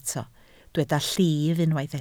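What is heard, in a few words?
"llif" Welsh, spoken word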